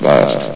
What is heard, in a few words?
"bust", vox; Source Engine; bshift